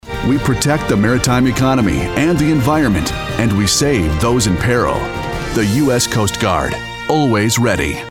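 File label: English US, Dynamisch; Fremdtake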